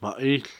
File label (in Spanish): "gusano", Cucapá